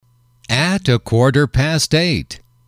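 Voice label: "a quarter past eight", male, English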